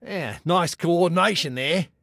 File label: Taunt Sarcasm, Male; Male 02